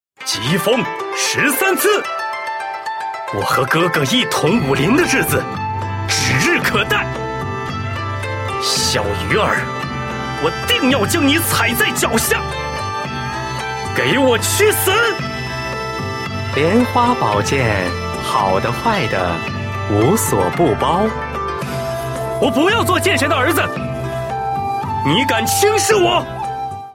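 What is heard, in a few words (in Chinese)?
shaonian, boy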